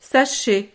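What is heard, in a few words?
"sachet", French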